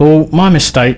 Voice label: Sorry, male; svencoop